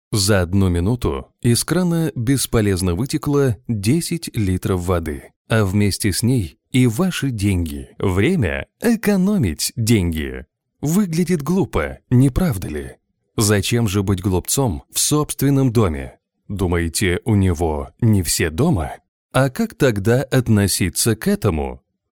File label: male russian voice over talent narrative